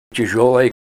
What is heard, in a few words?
heavy, Ninilchik Russian